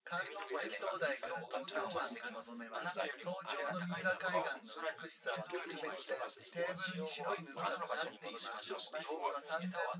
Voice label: male synthconv